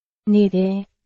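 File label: Burmese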